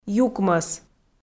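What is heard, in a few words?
"юкмӧс", Komi, female; kpv audio dict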